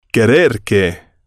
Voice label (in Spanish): querer que, Spanish